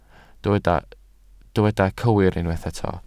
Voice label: "cywir", Welsh

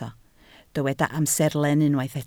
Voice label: "amserlen", Welsh

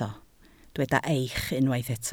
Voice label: "eich", Welsh

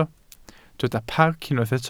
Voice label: "parc", Welsh

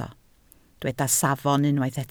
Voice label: "safon", Welsh

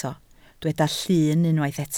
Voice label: "llyn", Welsh

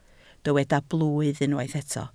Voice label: "blwydd", Welsh

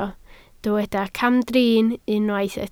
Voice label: "camdrin", Welsh

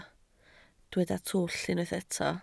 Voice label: "twll", Welsh

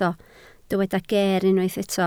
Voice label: "geer", Welsh

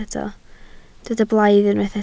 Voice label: "blaidd", Welsh

